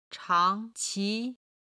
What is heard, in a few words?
"chang2 qi2", Chinese; chinese travel